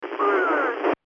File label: Distress call